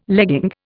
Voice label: "legging", Indian